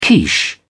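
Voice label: "quiche", British English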